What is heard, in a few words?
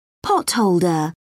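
"potholder", British English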